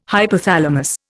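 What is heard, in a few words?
"hypothalamus", Indian English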